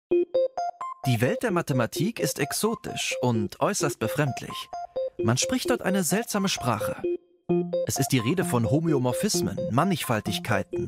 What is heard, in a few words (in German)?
Kommentar Intro; ARTE Mathewelten